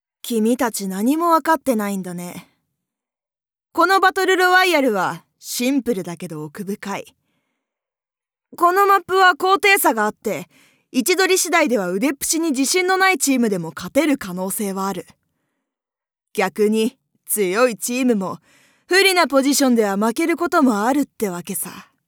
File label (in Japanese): ボイスサンプル 賢い少年